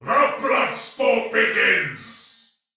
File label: See player shout, male soldier; Dialog; Barks; Battle; Sinecure